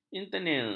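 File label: "internir", Malay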